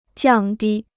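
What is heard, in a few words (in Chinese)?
"降低" Chinese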